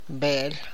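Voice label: Tlacochahuaya Zapotec; meat; Tlacochahuaya Zapotec Talking Dictionary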